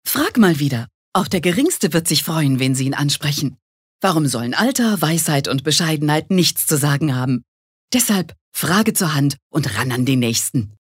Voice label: Werbung Dynamisch

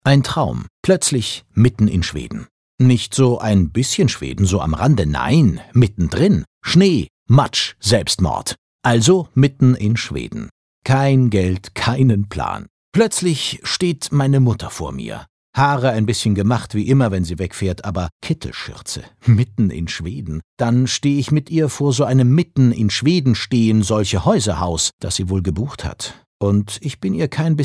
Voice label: Schauspiel Lebendig

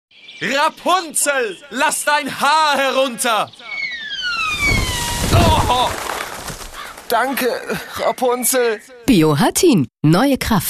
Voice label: Freundlich; Fremdtake

